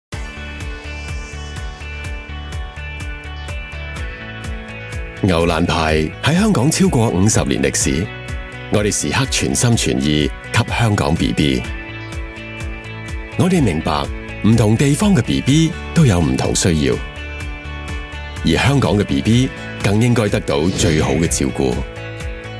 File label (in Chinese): 粵語男聲; 奶粉